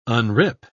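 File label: "unrip", English, British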